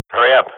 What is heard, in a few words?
radio bot time, Counter-Strike swat; Global Offensive; player vo